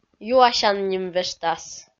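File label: "юасян нимвежтас", Komi, female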